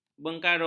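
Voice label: "bengkarung", Malay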